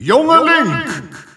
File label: Dutch Announcer; Young Link; SSBU